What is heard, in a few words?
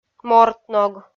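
"мортног" Komi, female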